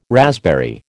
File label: "raspberry", American